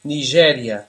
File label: "nigeria", pt; gcompris; geography